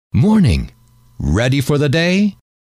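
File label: morning ready for the day, male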